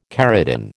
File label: "keratin", American